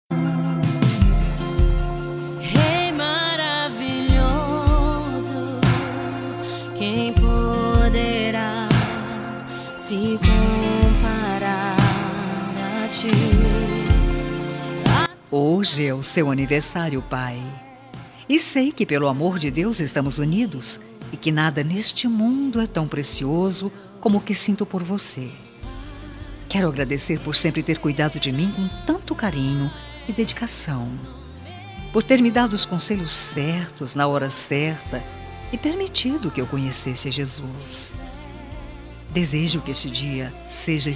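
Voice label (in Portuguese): aniversario evangelico para pai feminino, telemensagem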